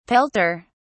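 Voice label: "pelter", English, British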